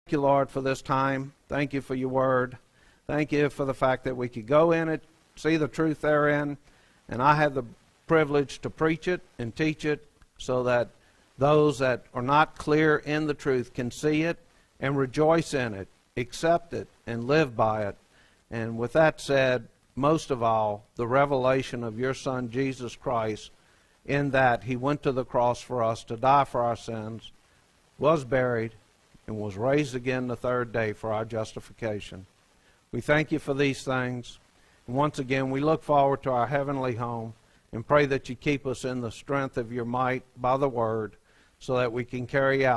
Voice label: preaching